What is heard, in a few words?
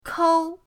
"kou1", pinyin; pinyindct-open